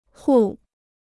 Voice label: "户", Chinese

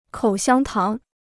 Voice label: "口香糖", Chinese

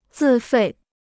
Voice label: "自费", Chinese

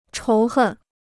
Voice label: "仇恨", Chinese

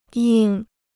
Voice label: "硬", Chinese

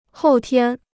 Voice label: "后天", Chinese

